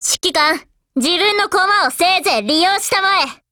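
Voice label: ATTACK, Japanese; XM8; GO ATTACK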